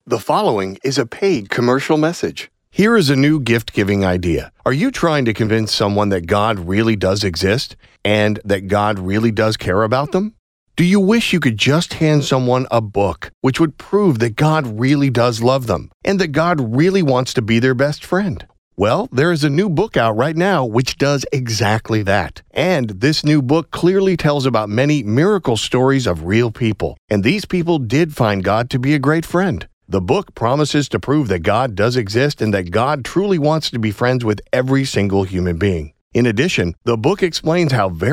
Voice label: Radio Ad; 50K Miracles